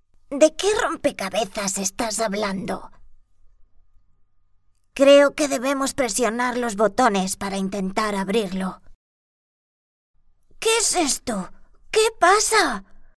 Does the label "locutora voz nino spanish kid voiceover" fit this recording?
Yes